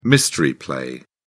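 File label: "mystery play", British English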